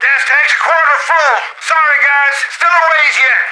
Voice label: radio in transit; Left 4 Dead 2; left4dead2 dlc3; planepilot; npc